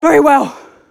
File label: english Idle; azurlane hoi4; azurlane sr reforged